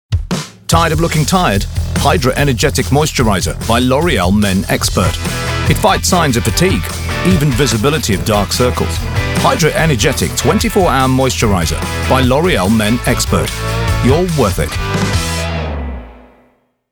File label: Ad; LOreal